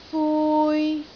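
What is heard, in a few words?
"fui3", Cantonese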